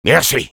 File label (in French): Sniper thanks, fr